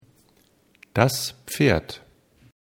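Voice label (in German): "pferd", German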